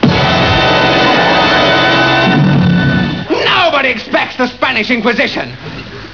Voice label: spanish